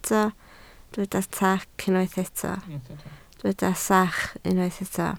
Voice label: "sach", Welsh

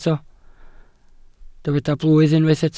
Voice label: "blwydd", welsh

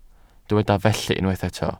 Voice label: "felly", Welsh

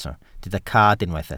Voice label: "cad", welsh

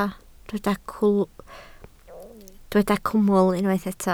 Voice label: "cwmwl", Welsh

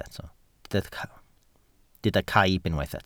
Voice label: "caib", Welsh

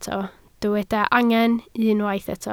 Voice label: "angen", Welsh

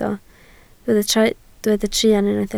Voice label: "truan", Welsh

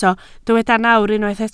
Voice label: "nawr", Welsh

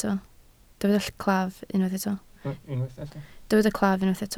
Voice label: "claf", Welsh

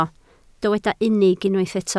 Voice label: "unig", Welsh

